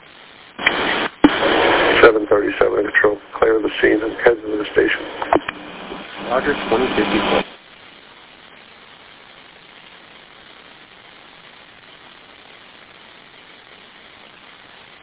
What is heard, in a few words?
Police Radio